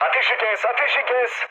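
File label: Turkish player friendly fire, mood low, speech; War Thunder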